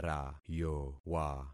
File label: "rayowa", concat syllables; Affixing experiment